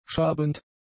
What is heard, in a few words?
"schabend", german; adjectives